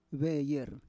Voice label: "veyer", Roon